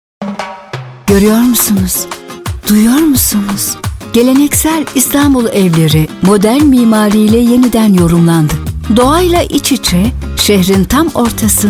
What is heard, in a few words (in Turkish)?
reklam; cengelkoy parkevleri